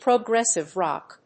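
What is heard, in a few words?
"progressive rock", English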